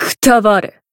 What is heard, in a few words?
finish jp; minalca